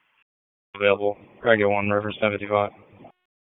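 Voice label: Police Northeast District Disp, radio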